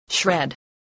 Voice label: "shred", spelling